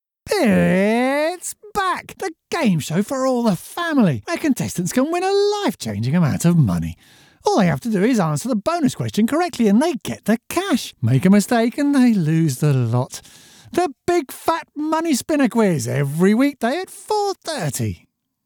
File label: GAME SHOW, voice over; Genuine British Received pronunciation, cockney and Scottish